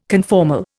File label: "conformal", Indian